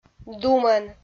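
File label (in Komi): "думӧн", Komi, female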